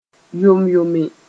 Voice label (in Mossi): "yom-yome", moore; completely new